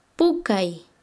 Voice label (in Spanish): "soplar", Quechua Chanka